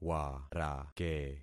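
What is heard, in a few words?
"warake", concatenated syllables; Affixing experiment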